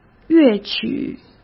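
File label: "乐曲", Mandarin Chinese; chinese flashcards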